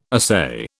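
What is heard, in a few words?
"assai", British English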